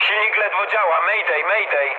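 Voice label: damaged engine, mood low, Polish speech; War Thunder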